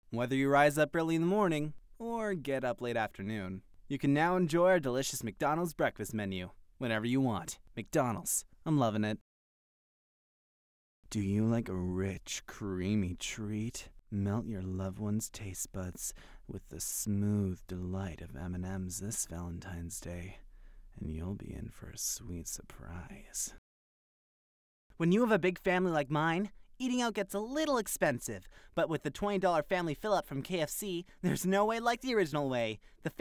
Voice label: COMMERCIAL TRANSPORT; Commercial Demo

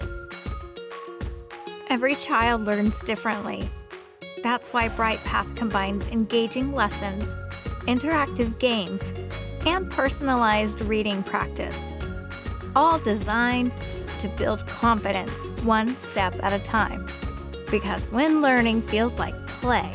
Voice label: Children's Demo Reel

